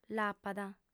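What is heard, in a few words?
"l'apat", female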